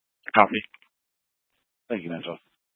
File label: Police Department Dispatch; Grand Junction